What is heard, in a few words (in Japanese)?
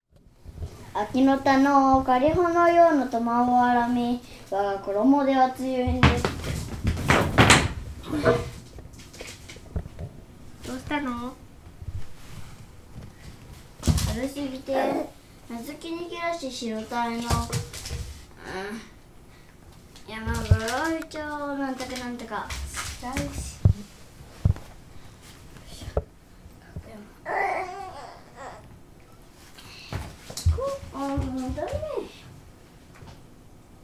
hanasigoe hyakuninisshu; 話し声（百人一首）